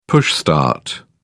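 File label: "push start", British English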